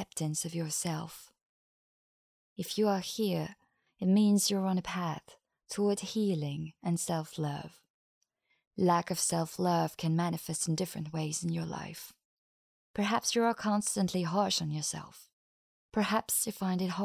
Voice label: EN Meditation Introduction